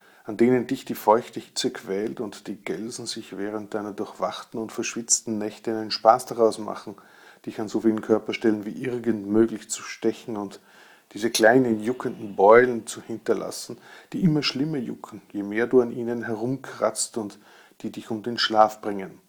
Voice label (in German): Mikrotest Rode Smartlav